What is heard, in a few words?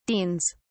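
"deans", British English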